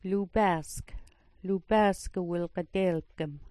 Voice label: "lubaask", smalgyax

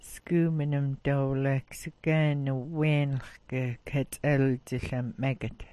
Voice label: "'weenx", smalgyax

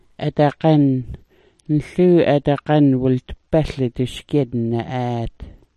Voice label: "adagan", smalgyax

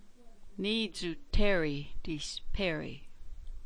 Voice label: "dis", smalgyax

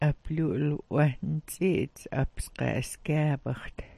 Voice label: "'wah'nts'iits", smalgyax

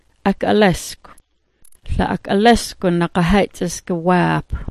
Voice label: "ak'alaskw", smalgyax

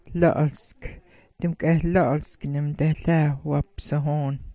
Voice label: "la'alsk", smalgyax

